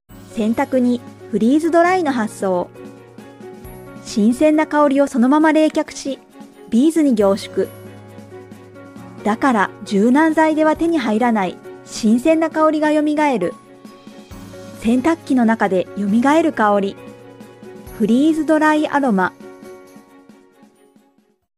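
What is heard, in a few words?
female, Japanese, narration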